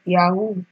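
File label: "yahoo", Nigerian Pidgin, female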